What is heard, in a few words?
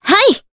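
Vox, voices, Japanese; Veronica; kingsraid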